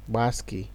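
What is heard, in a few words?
"gbaski", Nigerian Pidgin, male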